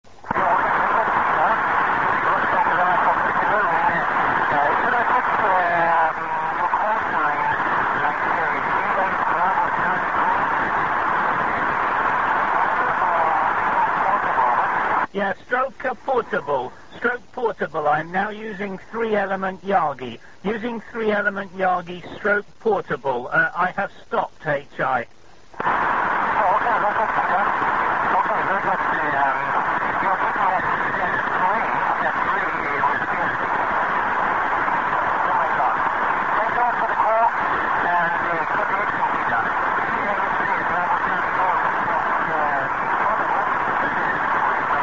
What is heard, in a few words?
qso